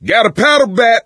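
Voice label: melee weapons, voice, male; Left 4 Dead 2; coach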